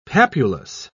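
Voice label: "papulous", British English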